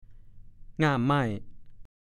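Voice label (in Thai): "ง่ามไม่" ภาษาโคราช; คาคบ ง่ามต้นไม้ที่กิ่งใหญ่กับต้นแยกกัน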